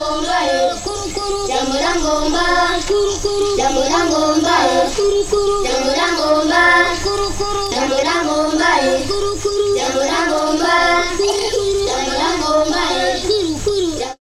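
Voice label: voice, Foreigns; Sound & Vision; Gigapack II CD 2 (Roland)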